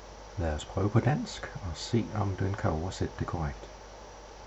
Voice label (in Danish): whisper danish